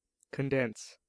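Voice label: "condense", American English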